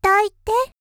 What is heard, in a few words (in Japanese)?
少女 抱いて; 元気な少女